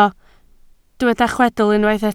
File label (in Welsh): "chwedl" Welsh